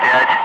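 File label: "5", spoken; Ka-50; Wingman; Digits; DCS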